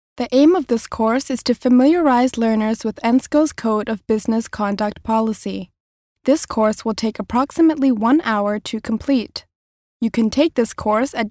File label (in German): Nachvertonung Film Sprecherin Englisch US